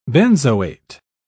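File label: "benzoate", English, British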